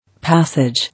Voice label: "Passage", English